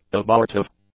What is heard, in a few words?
"abortive", english; adjectives